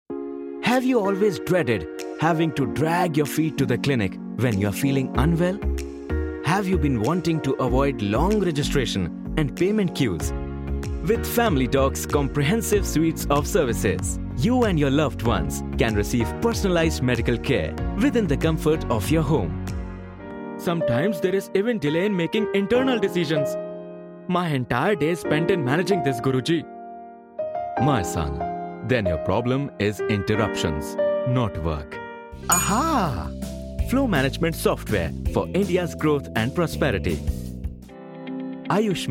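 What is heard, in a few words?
Hindi, accented English, Commercial; voice demos; GoLocalise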